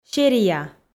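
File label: "серия", Komi, female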